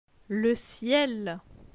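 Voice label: "Le ciel", French; phonetique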